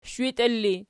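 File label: shwitiela ergative, Archi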